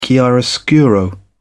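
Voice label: "chiaroscuro", British English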